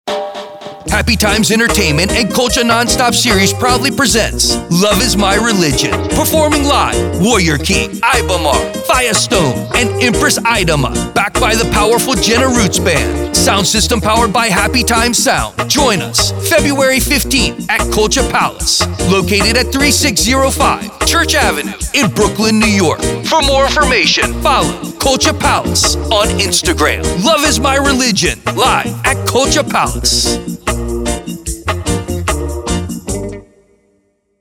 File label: Promo Ad